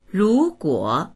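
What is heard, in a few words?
"ru2guo3", Mandarin Chinese; rúguǒ; 如果; もし～ならば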